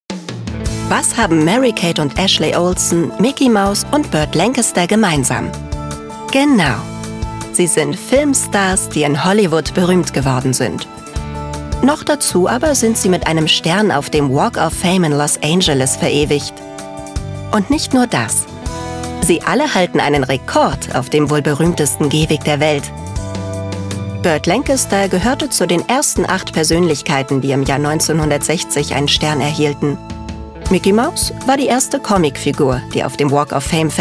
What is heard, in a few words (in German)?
reportage